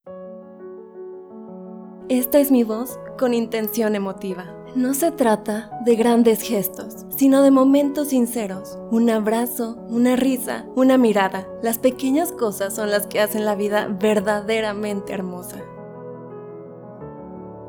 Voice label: emotiva Musicalizado, locutora

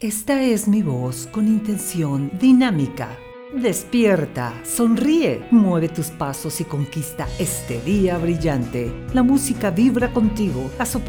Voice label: Dinamica Musicalizada, locutora

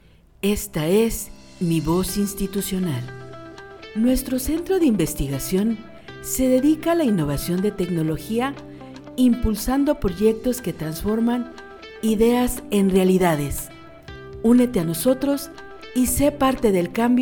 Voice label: Institucional Musicalizado

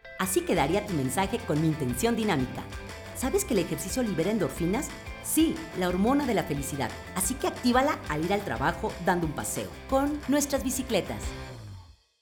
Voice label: Dinamica, locutora

